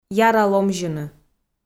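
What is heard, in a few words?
"яра ломзьыны", Komi-Zyrian, female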